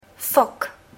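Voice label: "fog", English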